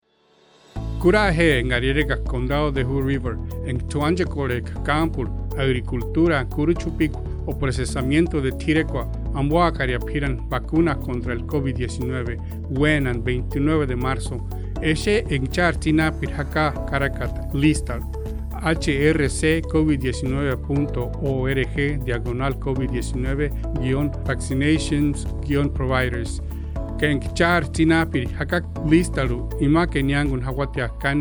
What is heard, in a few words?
Purepecha; Hood River Co; Vaccine Radio Spots; covid19